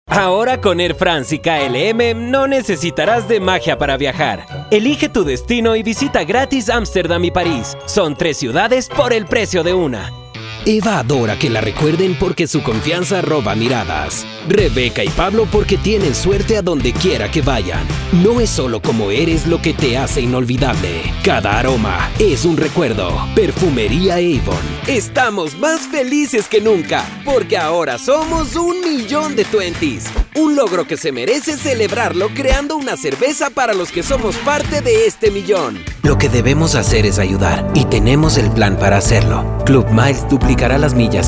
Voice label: COMERCIAL COMMERCIAL